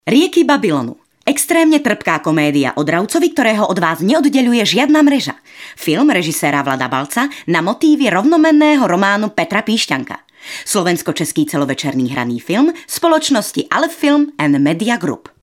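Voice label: Female Slovak commercial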